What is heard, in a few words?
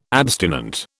"abstinent", British